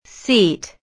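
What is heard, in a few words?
"seat", English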